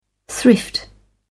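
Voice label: "thrift", British English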